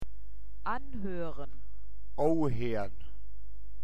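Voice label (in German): "anhören", Hochdeutsch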